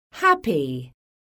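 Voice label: "HAPPY", English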